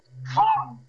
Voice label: Voice Acting es M

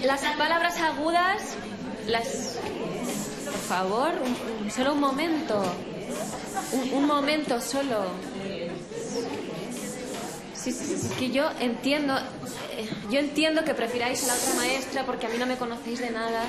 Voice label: Voice Acting es F

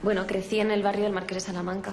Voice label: Voice Acting es F

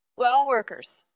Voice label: VoiceActing es

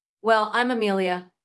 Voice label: Voice Acting es

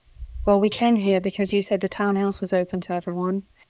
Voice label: Voice Acting, es, F0

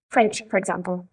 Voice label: Voice Acting es F3

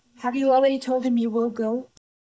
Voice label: Voice Acting es

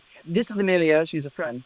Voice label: Voice Acting, es

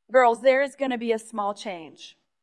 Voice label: VoiceActing es F

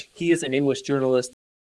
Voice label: Voice Acting, es, M

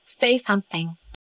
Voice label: Voice Acting es

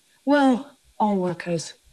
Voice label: Voice Acting, es, F0

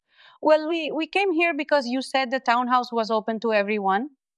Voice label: Voice Acting es F

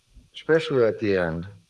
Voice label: Voice Acting es M

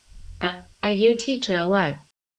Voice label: Voice Acting es

